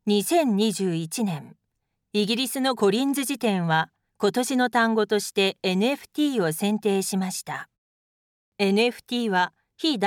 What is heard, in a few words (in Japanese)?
ハキハキした(日本語), ナレーション; 韓国ソウル出身。日本の専門学校、声優養成所を卒業。